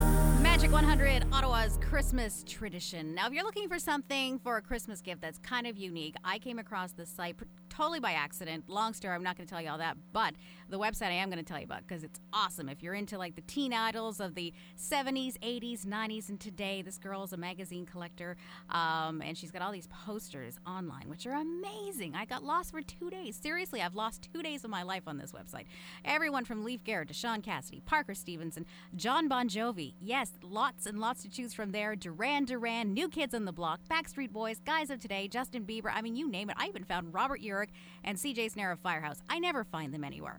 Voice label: radio; canada